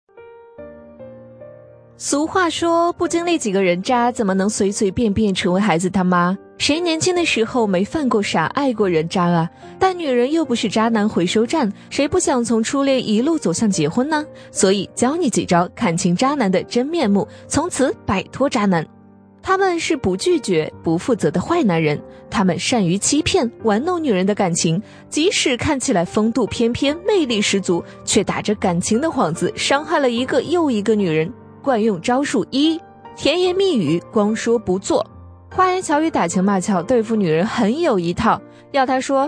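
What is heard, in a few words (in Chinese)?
女飞碟说; 如何鉴别渣男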